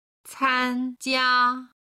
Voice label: "can1jia1", Chinese; HSK3級